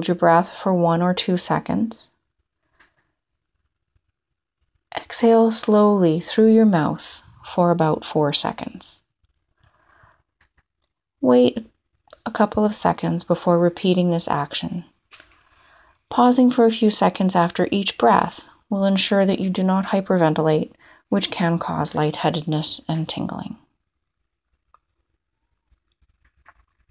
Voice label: Deep Breathing